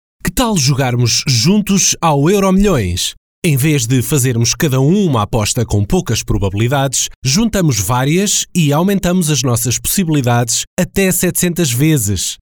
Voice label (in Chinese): 葡萄牙语男 激情力度